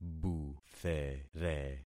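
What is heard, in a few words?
"buthere", concatenated syllables; Affixing experiment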